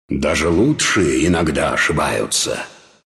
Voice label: failure, ru; Dota 2

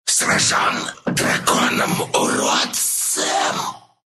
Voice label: death, ru; Dota 2

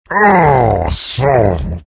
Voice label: anger; bristleback

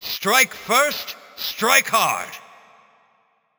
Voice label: Announcer Spree First Blood; Paladins; Mecha Commander

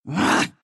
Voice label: anger ru; dota2

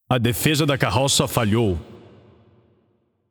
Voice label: Announcer Match Payload Enemy Successful; PTBR

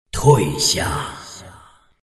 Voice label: attack zh; Dota 2

